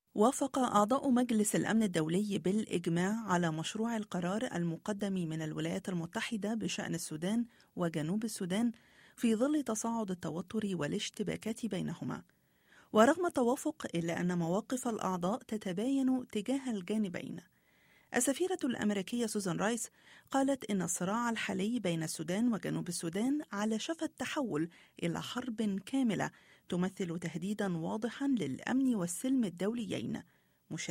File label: arabic